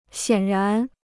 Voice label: "显然", Chinese

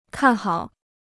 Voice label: "看好", Chinese

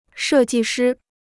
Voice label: "设计师", Chinese

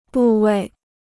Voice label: "部位", Chinese

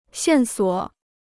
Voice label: "线索", Chinese

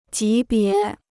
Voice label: "级别", Chinese

